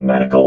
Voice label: "medical", robot